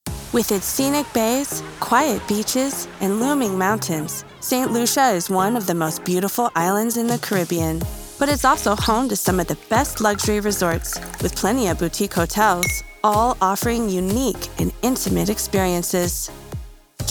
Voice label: Narrations, Professional Female Voice Over Actor

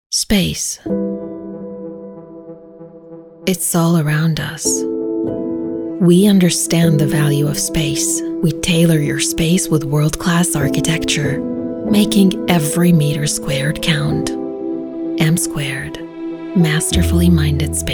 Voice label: English Commercial

